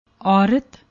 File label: "aurt", Punjabi; ਔਰਤ